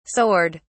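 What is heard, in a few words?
"soared", British English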